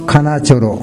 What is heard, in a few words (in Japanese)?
"kanachoro", minami yamagata